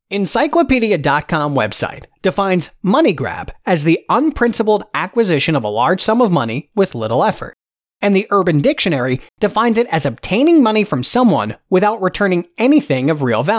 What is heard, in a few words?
story voice over; FranklinParcel